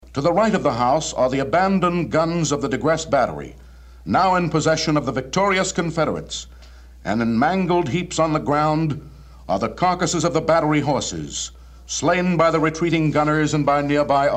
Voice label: narration; cyclorama; 1940

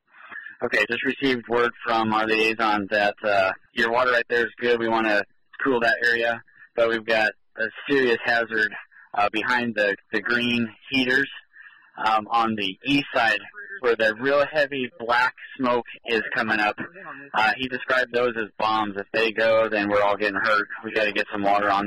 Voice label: Dispatch Call

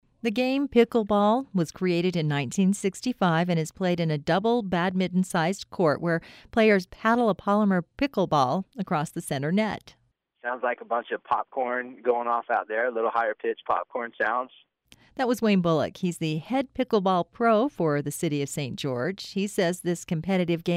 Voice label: narration

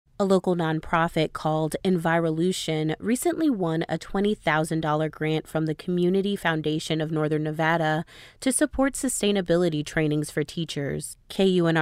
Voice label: narration

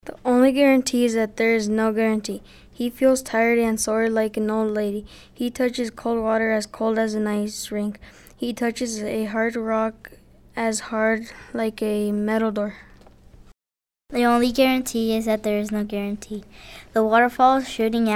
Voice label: voices group

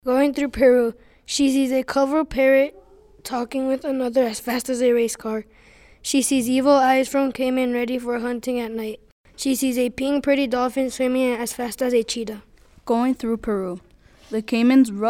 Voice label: voices group

